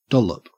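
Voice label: "dollop", Australian English; pronunciations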